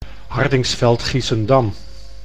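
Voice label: "Hardinxveld-Giessendam", Dutch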